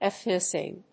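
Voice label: "ethnoscience", English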